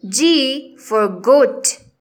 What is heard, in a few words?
g goat, English; grade1; identifying letters i